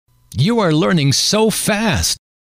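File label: you are learning so fast, male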